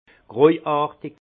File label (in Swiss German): "grojartig", alsace; vin; grisâtre